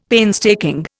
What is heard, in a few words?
"painstaking", Indian; Dictionary